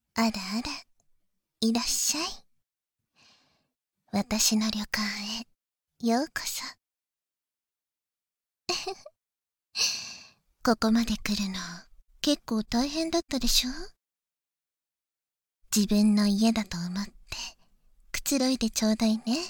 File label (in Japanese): オープニング（バイノーラルマイク 効果音なし）